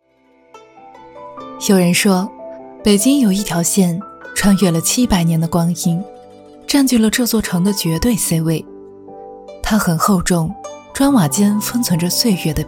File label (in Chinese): 女 解说; 爱在C位